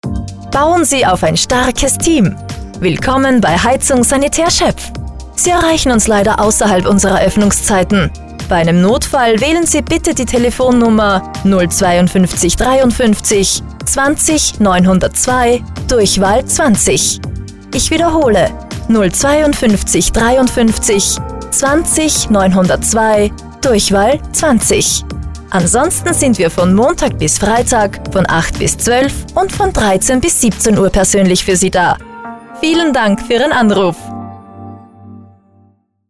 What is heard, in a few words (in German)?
Nachtansage; Heizung Sanitaer Schoepf; telefonansagen sonstige